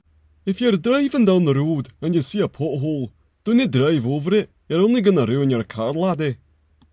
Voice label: scottish